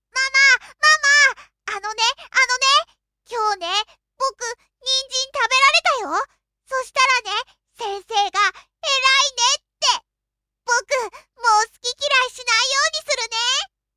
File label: male